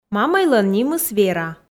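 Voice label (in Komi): мамӧйлӧн нимыс вера, Komi, female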